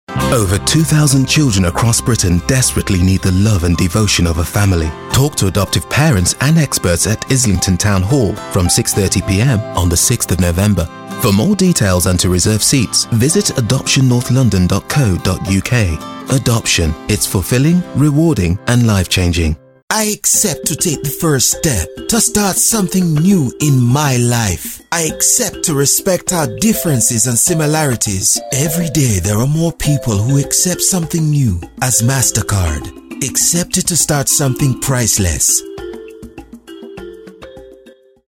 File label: Radio Commercial, voiceover